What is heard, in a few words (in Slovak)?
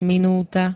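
"minúta", Slovak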